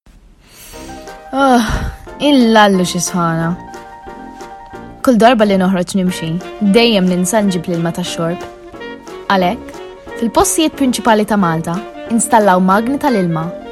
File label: Maltese; Maltese, Female, Home Studio, Teens-20s